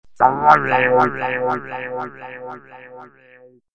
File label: sorry phaser delay